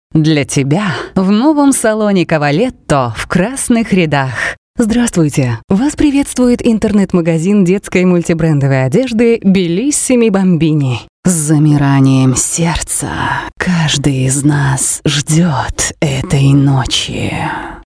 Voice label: russian voiceover locutora rusa